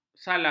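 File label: "salah", Malay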